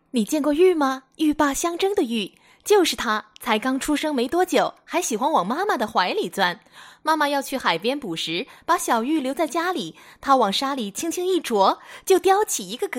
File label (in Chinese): 电影解说